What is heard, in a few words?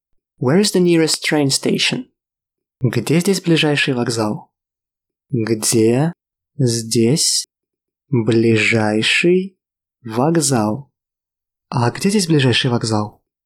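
Russian Where is the nearest train station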